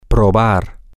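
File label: Spanish, to taste try, male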